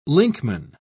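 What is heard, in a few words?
"linkman", British English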